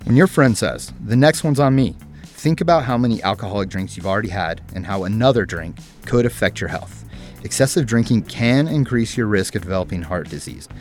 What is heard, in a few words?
Male40s Think Before Drink Male